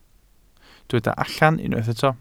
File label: "allan", Welsh